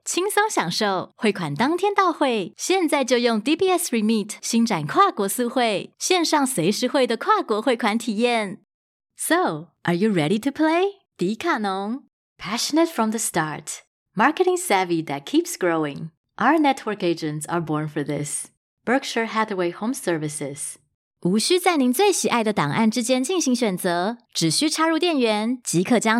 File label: Commercial Corporate